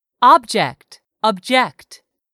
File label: "object", English; 3000 words